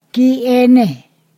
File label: "to whom short", Ponosakan